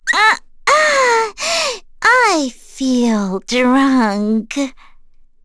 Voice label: Vox Skill, en; kingsraid; voices heroes